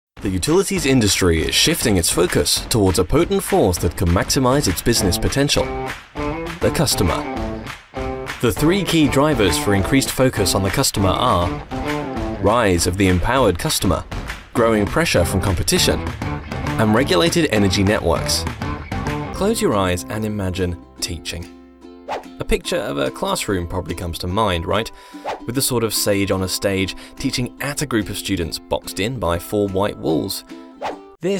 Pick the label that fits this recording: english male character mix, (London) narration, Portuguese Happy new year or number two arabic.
(London) narration